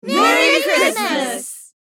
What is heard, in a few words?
Female crowd merry christmas